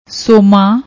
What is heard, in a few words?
"ਸੋਮਾ", Punjabi